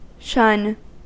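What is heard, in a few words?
"shun", American English; pronunciations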